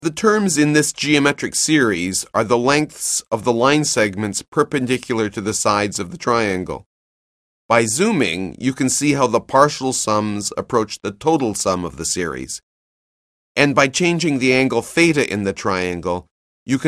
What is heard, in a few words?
narration; CALCULUS 7E; cengage